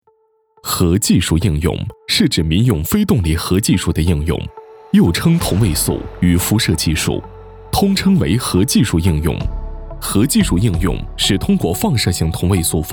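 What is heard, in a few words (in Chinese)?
科技宣传核技术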